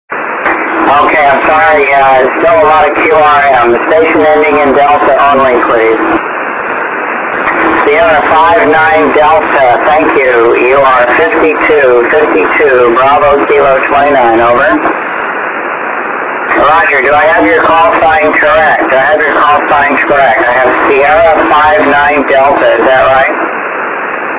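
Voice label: 6m single sideband; kh6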